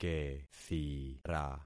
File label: "kethira", concat syllables; Affixing experiment